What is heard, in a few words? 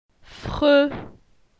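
"Fre", French; phonetique; la syllabe e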